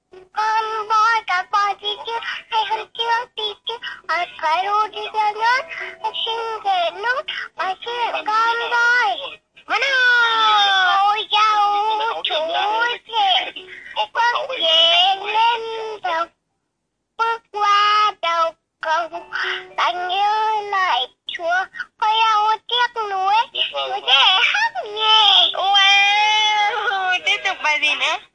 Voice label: lofi kids voice and tv

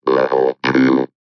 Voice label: Level2, Robotic or Stuttery or Spooky VOCALS

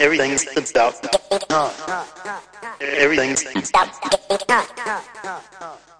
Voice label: everything stutter vox

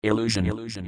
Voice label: illusion, Robotic or Stuttery or Spooky

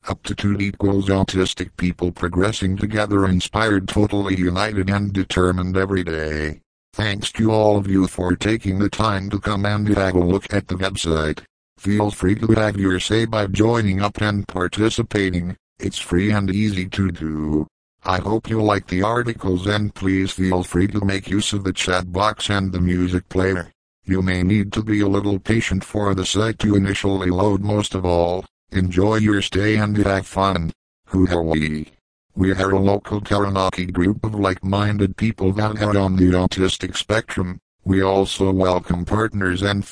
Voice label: robot random text; Robotic or Stuttery or Spooky

